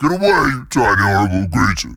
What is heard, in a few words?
nervous giant attack